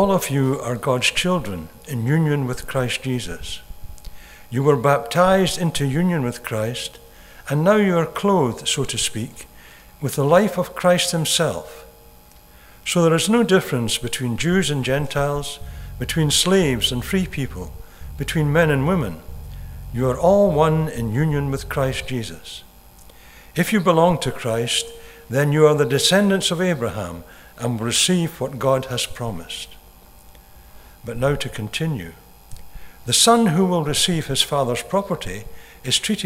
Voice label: read; Galations 3:26 - 4:7